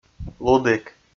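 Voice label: "лудік", Komi, male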